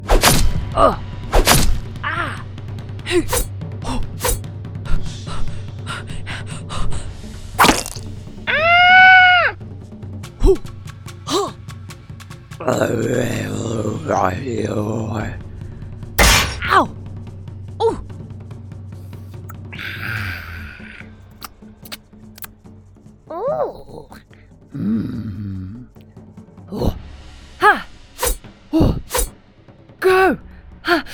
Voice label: CHARACTER EFFECTS

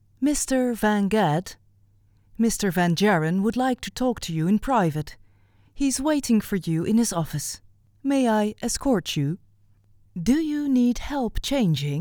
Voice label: Character Housekeeper Mid European Accent

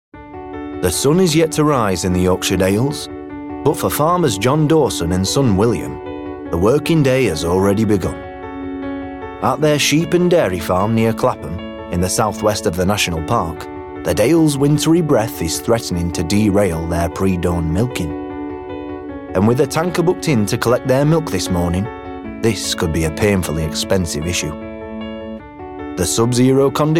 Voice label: documentary

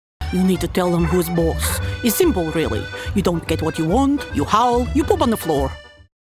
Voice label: Russian Accent Character

